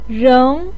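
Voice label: "reng", Chinese pinyin, second tone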